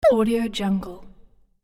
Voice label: cartoon character boo

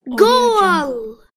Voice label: boy says goal word

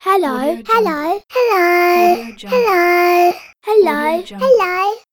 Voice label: cute girl hello chants pack

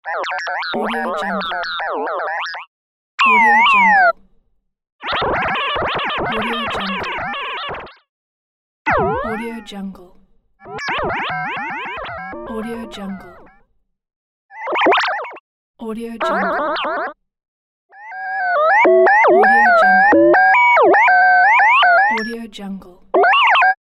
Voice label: droid robot voice

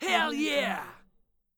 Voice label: man yells hell yeah; stormwaveaudio

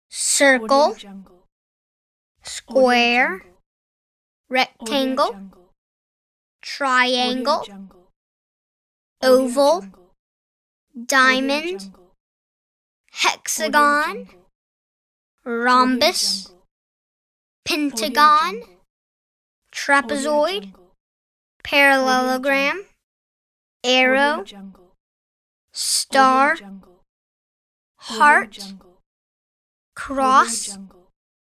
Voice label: boy naming shapes

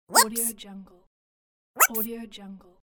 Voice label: cartoon voice whoops

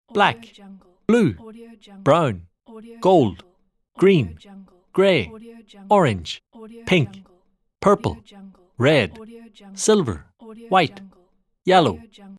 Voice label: colors naming pack male voice pack

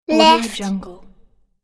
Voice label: boy says "left"

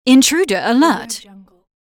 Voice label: intruder alert british female dry

